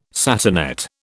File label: "satinette", British